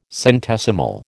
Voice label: "centesimal", American